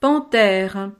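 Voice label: "panthere", French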